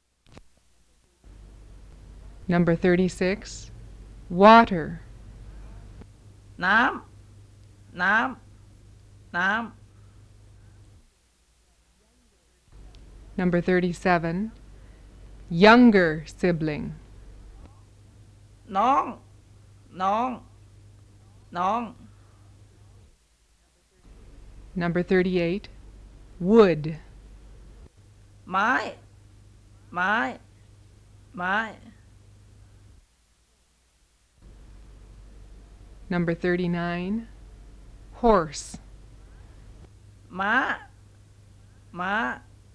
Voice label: THAI SUPANBURI Tones of Tai Dialects